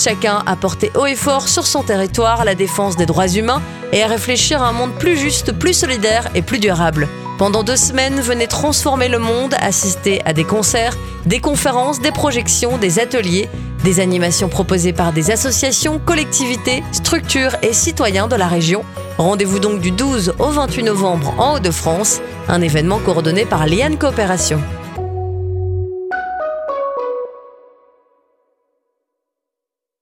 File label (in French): Spot Festival des Solidarites Voix F